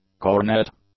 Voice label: "cornet", English